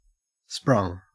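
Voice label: "sprung", Australian English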